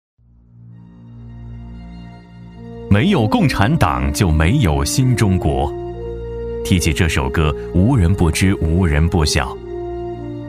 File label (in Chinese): 男, 人物类纪录片; 曹火星